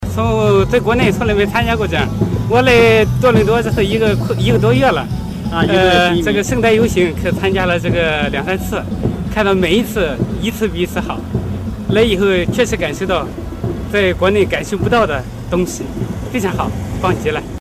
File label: chinese